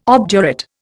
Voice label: "obdurate", Indian